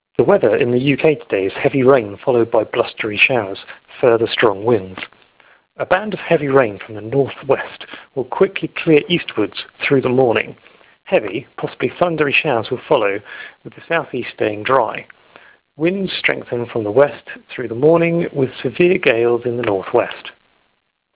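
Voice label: en UK Narrowband sample, British English; watson stt; bluemix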